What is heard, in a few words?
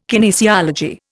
"kinesiology", Indian